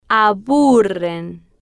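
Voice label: "a bu rren" Spanish, female, slow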